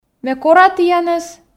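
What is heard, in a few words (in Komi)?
ме кора тіянӧс, Komi, female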